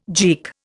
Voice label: "geek", Indian